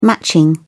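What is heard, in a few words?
"matching", British English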